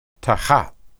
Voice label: "tahath", Hebrew

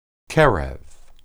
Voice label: "qereb", Hebrew

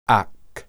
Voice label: only, hebrew

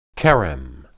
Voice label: "kerem", Hebrew

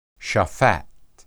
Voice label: "shaphat", Hebrew

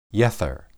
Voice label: "yether", Hebrew

